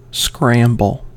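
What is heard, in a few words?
"scramble", American English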